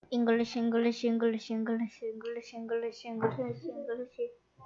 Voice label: English